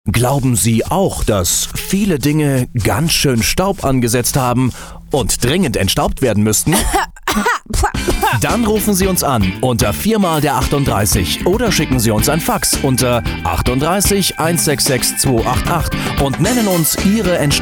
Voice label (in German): Werbung Energisch